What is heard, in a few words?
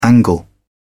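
"angle", British English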